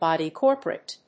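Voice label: "body corporate", English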